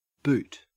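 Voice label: "boot", Australian English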